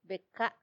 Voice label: "beka", earing, macuiltianguis; arete